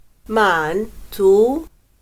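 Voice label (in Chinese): "满足", Chinese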